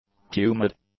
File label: "humid", English; adjectives